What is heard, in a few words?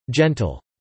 "gentle", English